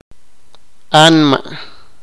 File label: sipakapense